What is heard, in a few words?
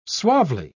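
"suavely", British English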